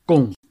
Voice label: "gong4", Chinese